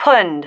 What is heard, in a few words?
"pwned", female